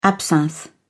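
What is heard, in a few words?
"absinthe", British English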